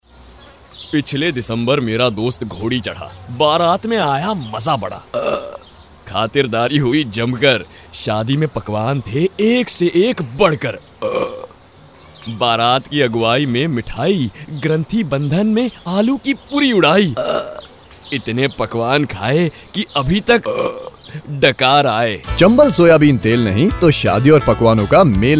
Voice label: Radio AD